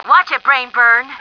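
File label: watch it, female; stars; taunts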